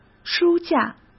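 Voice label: "书架", Mandarin Chinese; hsk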